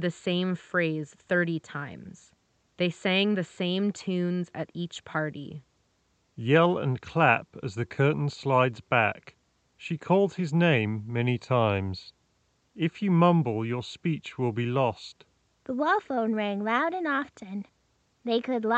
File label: Ideal microphone sample; Moondrop Chu